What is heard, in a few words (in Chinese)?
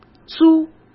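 "租", Mandarin Chinese; hsk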